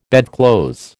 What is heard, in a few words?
"bedclothes", American